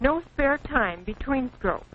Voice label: no spare time, Quirky Female Typing Instructor; VOCALS and SPOKEN WORD